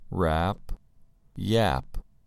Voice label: "rap" "yap"

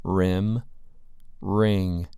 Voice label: "rim" "ring"

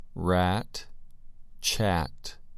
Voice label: "rat" "chat"

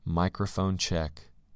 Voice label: microphone check

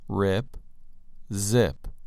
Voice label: "rip" "zip"

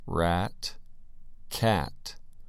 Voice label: "rat" "cat"

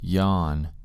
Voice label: yawn

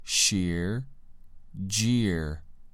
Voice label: "shear" "jeer"

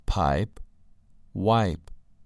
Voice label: "pipe" "wipe"

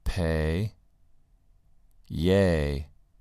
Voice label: "pay" "yay"

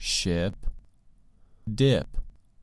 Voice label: "ship" "dip"

